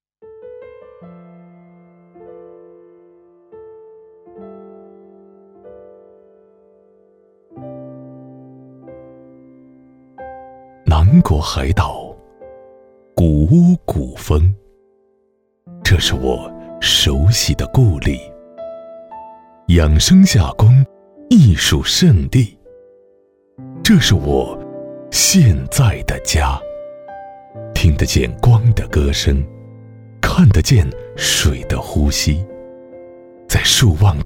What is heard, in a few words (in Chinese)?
男国 宣传片 地产 磁性; 房地产宣传